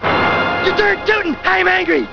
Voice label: angry